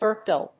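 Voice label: "birdcall", English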